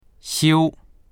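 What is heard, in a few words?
"xiu1", Mandarin Chinese; 修; 直す、修理する、整える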